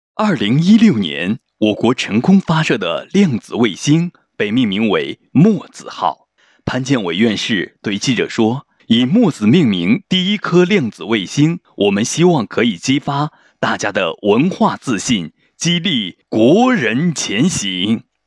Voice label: 活力解说视频解说

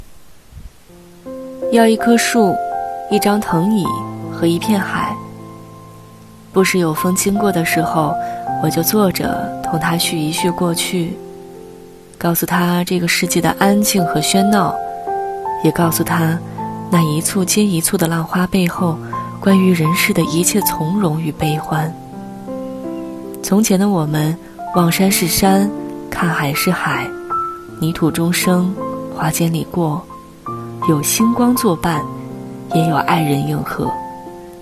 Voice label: 台宣

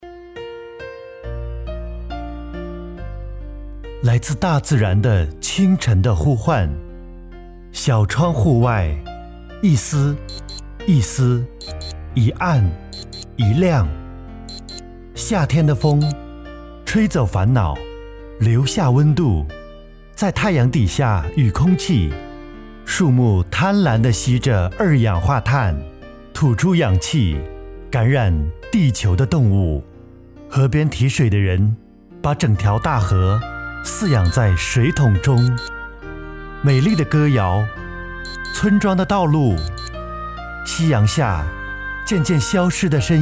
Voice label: 台湾男

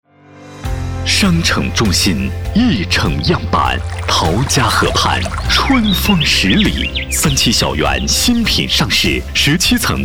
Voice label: 报时套播广告; 春风十里

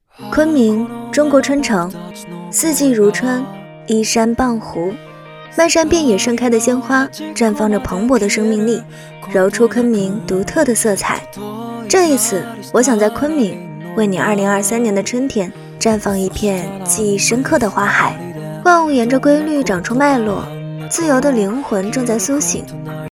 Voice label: 旁白城市宣传

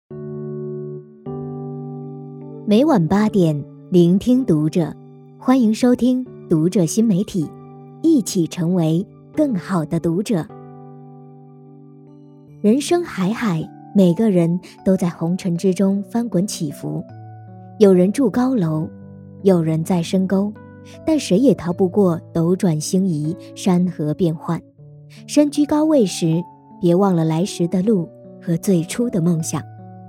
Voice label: 新媒体 情感

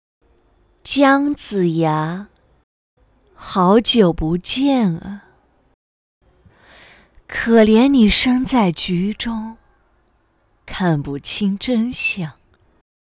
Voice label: 配音; 姜子牙; 九尾

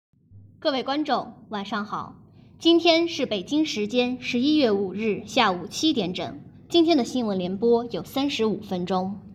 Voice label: 播新闻

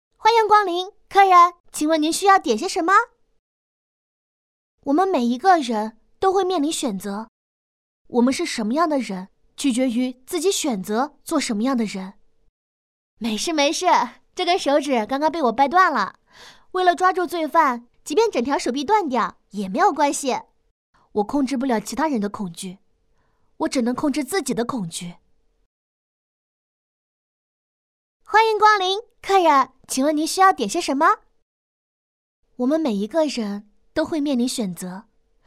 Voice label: 活泼俏皮天真残忍少女音

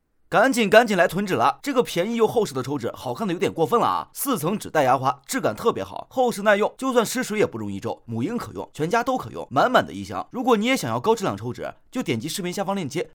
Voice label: 软件 广告

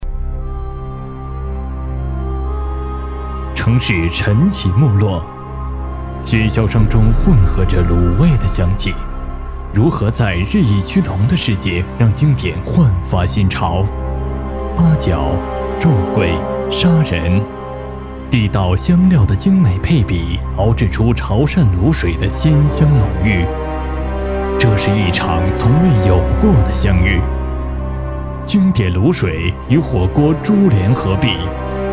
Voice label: 电影片头 古风 古代 旁白

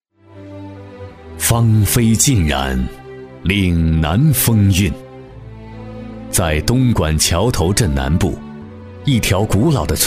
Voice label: 专题 大气 讲述; 迳联村改造项目

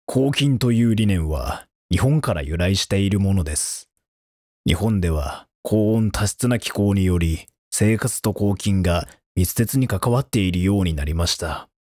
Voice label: 外籍日语配音大气低沉

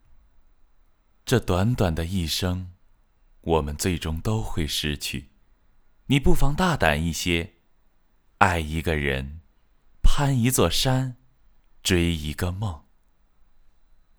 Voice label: 青年样音

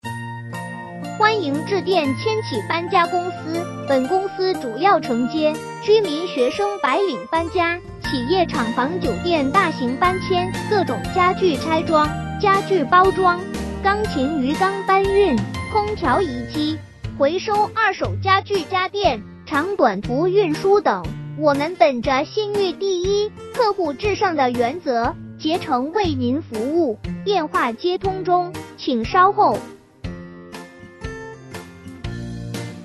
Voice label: 男童

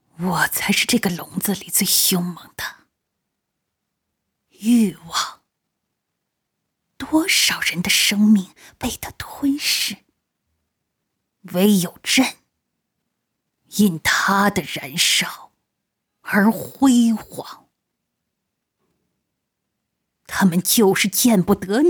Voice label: 女帝古风御姐

